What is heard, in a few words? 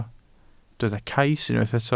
"cais", welsh